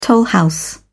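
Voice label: "tollhouse", British English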